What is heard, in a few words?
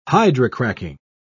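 "hydrocracking", British English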